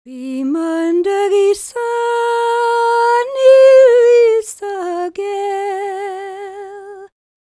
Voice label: WELSH F, World Voices; ILIO; Vocal Planet; WELSH FEM